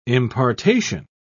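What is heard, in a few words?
"impartation", British English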